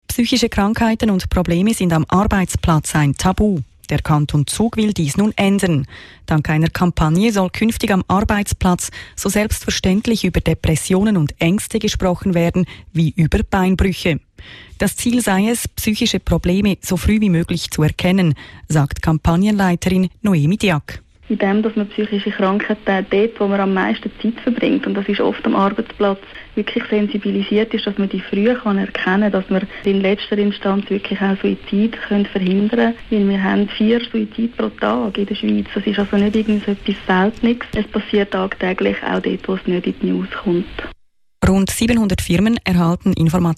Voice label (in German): Interview Radio; Radio Pilatus 2014